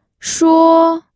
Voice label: "shuo1", pinyin

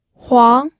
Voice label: "huang2", pinyin audio

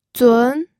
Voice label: "zun2", pinyin

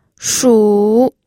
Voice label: "shu3", pinyin

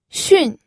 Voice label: "xun4", pinyin

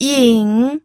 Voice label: "ying3", pinyin

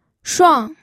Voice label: "shuang4", pinyin

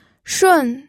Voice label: "shun4", pinyin

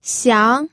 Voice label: "xiang2", pinyin

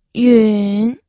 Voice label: "yun3", pinyin

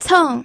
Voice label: "ceng4", pinyin

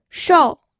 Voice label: "shou4", pinyin

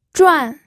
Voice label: "zhuan4", pinyin

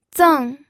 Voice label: "zeng4", pinyin

